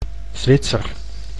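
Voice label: "Zwitser", Dutch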